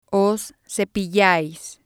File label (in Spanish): "os cepillais", spanish